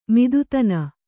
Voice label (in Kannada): "ಮತ್ತತನ", Kannada